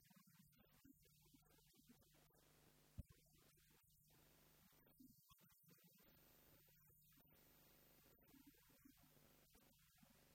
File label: announce; sunday message 2024